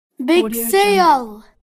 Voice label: boy says big sale

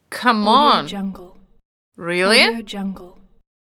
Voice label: woman says come on really

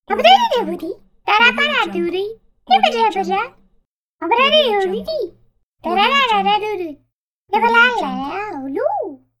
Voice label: cartoon girl jibber jabber

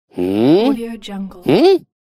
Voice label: man confused expression

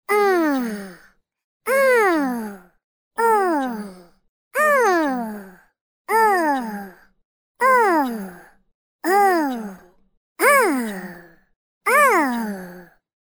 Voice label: cartoon character oh understanding pack; medusamusiclab

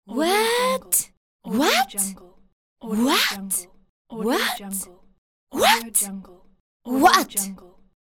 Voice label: teen girl voice what

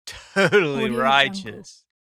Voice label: surfer saying totally righteous

